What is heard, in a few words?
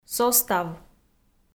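"состав", Komi-Zyrian, female; audio dict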